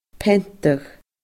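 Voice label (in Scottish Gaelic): "peantadh", Scottish Gaelic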